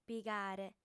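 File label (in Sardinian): "pigare", female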